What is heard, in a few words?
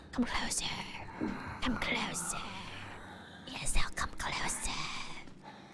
whispering; come closer